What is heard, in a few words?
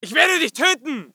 voice ger infantry; Red Orchestra 41-45